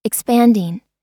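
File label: "expanding", US English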